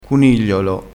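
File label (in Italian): "cunigliolo", dialettale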